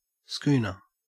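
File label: "schooner", Australian English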